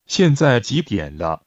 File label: Mandarin Conversation